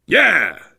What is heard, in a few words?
reaction positive, voice, biker; Left 4 Dead 2; survivor